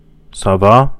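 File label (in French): "ça va", French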